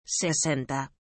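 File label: "sesenta", Spanish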